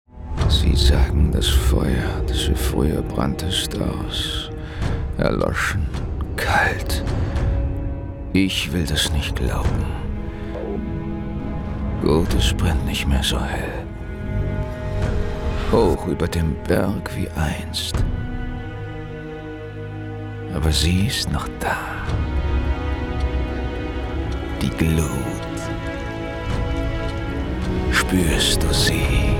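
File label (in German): TIEF TRAILER ATMOSPHÄRISCH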